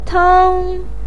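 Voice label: "teng1", Mandarin Chinese, pinyin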